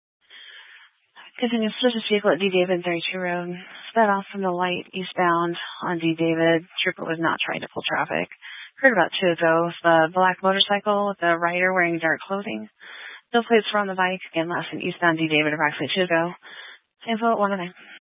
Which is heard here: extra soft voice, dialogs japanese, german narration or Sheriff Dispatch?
Sheriff Dispatch